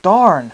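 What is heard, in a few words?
"darn", male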